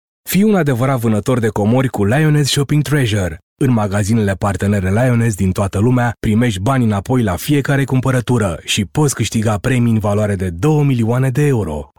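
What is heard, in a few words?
Romanian male Radio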